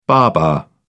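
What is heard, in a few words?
"baba", British English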